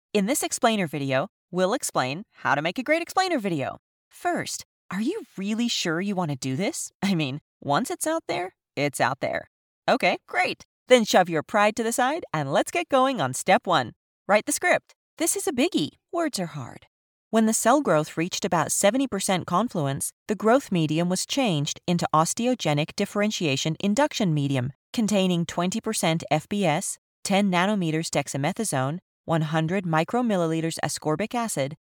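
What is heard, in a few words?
English American, female, voice over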